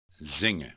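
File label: "zingen", Dutch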